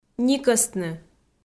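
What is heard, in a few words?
"никӧстны", Komi, female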